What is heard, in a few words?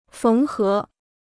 "缝合", Chinese